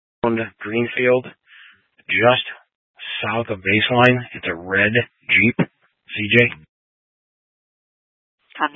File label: Fire Departments, scanner